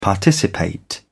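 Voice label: "participate", British English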